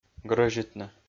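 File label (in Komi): "грӧзитны", Komi, male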